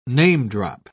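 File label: "namedrop", British English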